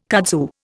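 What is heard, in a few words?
"kudzu", Indian English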